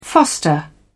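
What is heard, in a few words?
"foster", English, British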